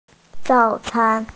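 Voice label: "breakfast", hearing impaired; zao3can1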